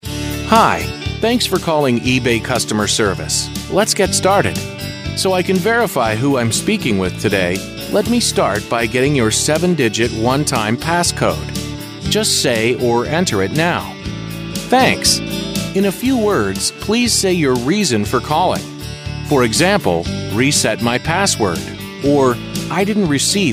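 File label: american interactive voice response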